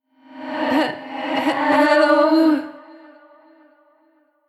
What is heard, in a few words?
echo dreamy hello